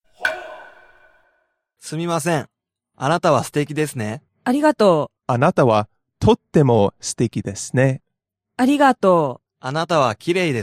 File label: dialog; JapanesePod101; Beginner Season 1